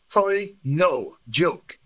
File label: Voice Acting, es